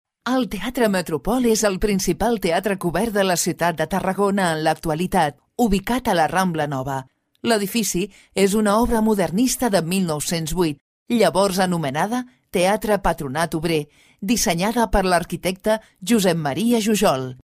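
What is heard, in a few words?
Catalan Spanish, female, narration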